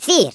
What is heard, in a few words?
"WATCH THIS", Africaan, Speech; Worms Armageddon